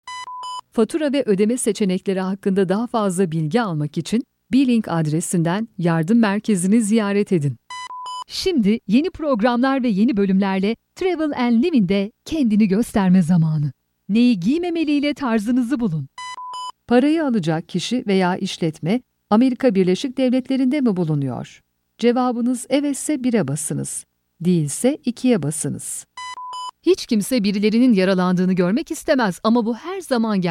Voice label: turkish f narration; voice demos

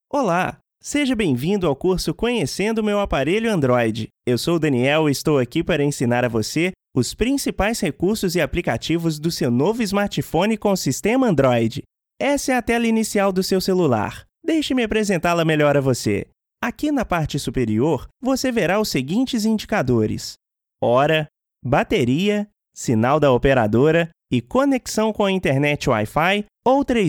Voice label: brazilian m narration, portuguese; voice demos

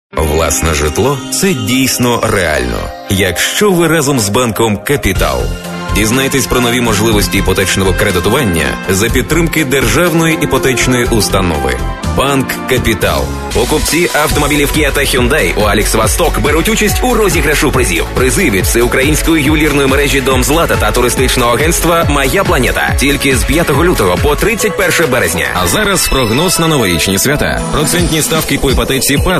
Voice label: ukrainian m commercial

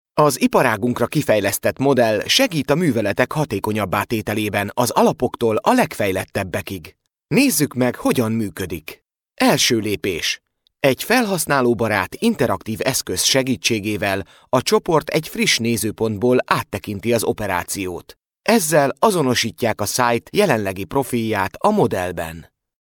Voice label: hungarian m narration; voice demos

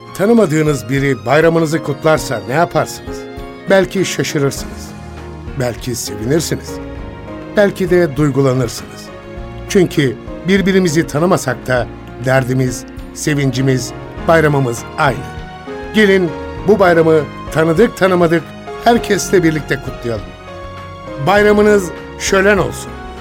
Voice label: turkish m narration, Turkish voice

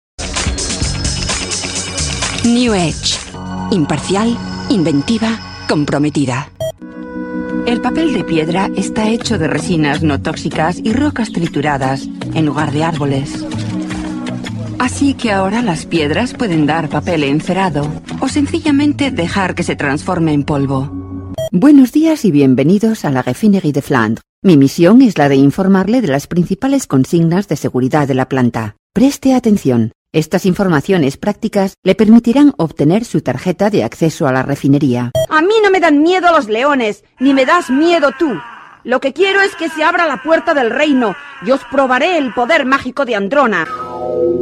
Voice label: spanish f commercial; voice demos

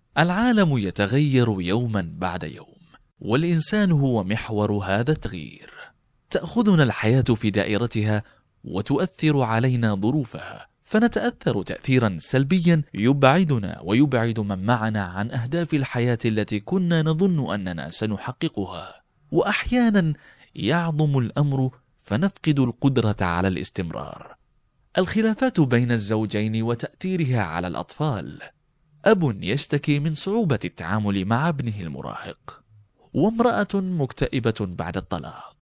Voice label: arabic m narration; voice demos

